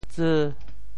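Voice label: "tseu5", Teochew; 潮州; <潮>“薯”的方言俗字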